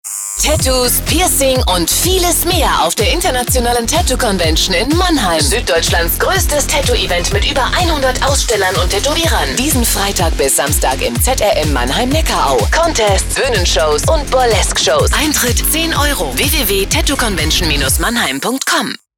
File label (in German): werbespot progressiv frech; Werbung